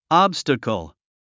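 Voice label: "obstacle", English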